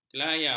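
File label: "kelayau", Malay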